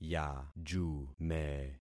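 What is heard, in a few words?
"yajume", concatenated syllables; Affixing experiment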